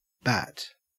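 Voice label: "bat", Australian English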